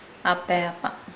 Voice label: Nukuoro; door